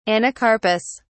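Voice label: "anocarpous", British English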